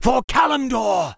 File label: warcry, en